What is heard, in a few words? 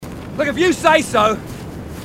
marine aussie; h2dialogue